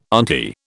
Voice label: "auntie", British